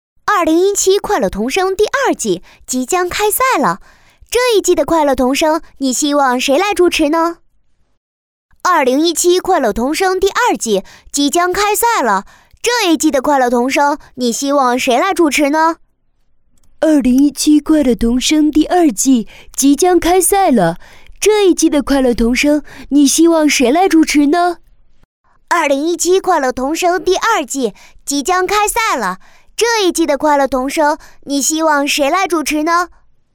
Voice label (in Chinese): 动画 童声 快乐童声